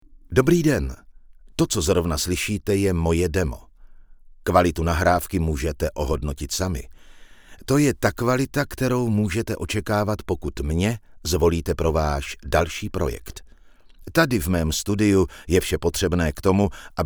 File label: czech male